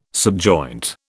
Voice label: "subjoint", British